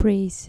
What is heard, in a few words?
"praise", dutch